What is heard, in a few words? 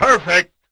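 "perfect", male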